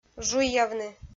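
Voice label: "жуйявны", Komi, female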